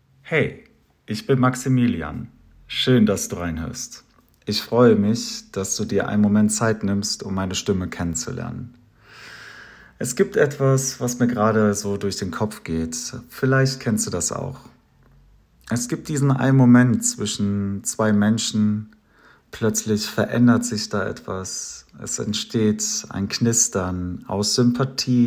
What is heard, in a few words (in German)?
Audiovorstellung, Escort Mann; München